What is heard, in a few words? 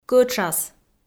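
"кӧтшас", Komi, female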